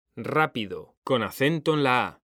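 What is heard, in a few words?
"rápido", Spanish, male; mekanta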